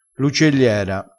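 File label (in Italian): "uccegliera", vocabolario dialettale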